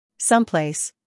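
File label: "scandal", American; someplace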